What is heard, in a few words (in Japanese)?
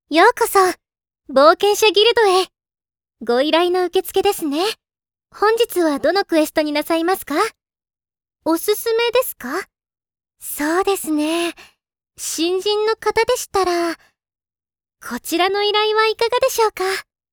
ギルドのお姉さん お淑やか 20歳; jtb-ent-voice